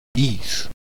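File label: "ies", Low German; klettres; tdeedu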